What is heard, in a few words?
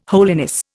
"holiness", Indian